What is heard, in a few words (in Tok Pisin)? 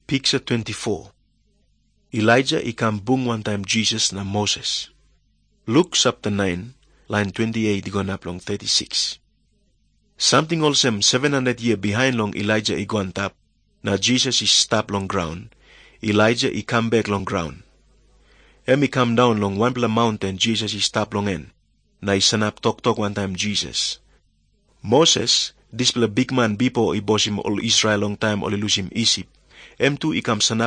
tok pisin, drama; png nt drama; servants of god